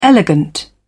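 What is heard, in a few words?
"elegant", British English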